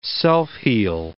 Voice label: "self-heal", English

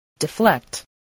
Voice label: "deflect", English

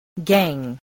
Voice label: "gang", voice, English

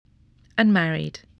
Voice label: "unmarried", English spelling voice

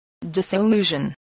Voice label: "disillusion", spelling

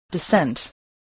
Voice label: "descent", English

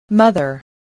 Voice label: "mother", voice, spelling

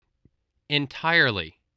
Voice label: "entirely", voice, english

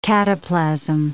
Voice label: "cataplasm", English

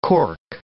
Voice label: "cork", English

